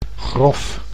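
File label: "grof", Dutch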